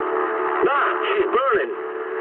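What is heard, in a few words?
English on fire mood low; War Thunder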